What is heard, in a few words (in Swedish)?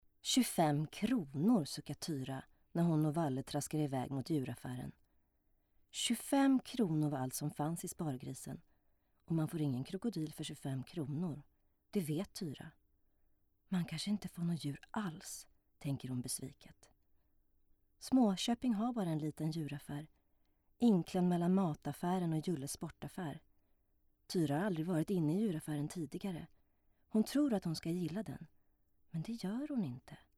story swe